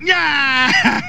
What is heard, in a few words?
nah ha male; ooh ahh; human